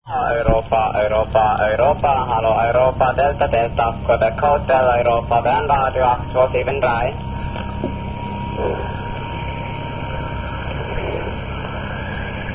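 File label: radio upper sideband; europe; bern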